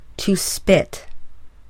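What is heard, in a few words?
"to spit", English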